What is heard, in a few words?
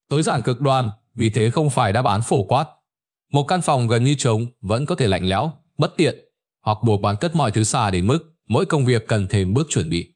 narration